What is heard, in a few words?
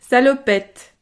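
"salopette", French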